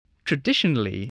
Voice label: "traditionally", voice